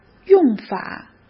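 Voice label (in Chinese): "用法", Mandarin; chinese-flashcards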